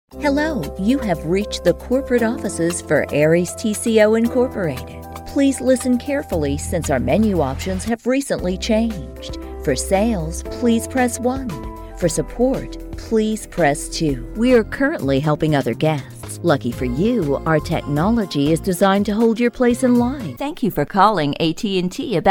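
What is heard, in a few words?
US phone voice, female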